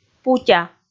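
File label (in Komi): "путя", Komi, female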